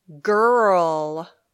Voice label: "girl", English

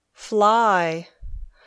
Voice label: "fly", English

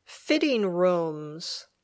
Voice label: "fitting rooms", English

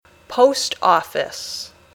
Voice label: "post office", English

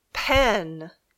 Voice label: "pen", English

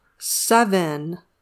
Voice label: "seven", English

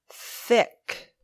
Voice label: "thick", English

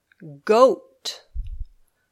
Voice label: "goat", English

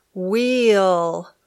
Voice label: "wheel", English

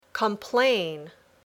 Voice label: "complain", English

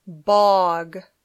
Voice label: "bog", English

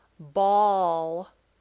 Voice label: "ball", English

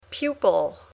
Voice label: "pupil", English

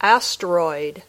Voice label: "asteroid", English

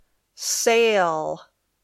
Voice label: "sail", English

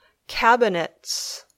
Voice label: "cabinets", English

